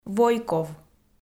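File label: "войков", Komi, female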